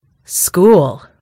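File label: "school", British English; gcompris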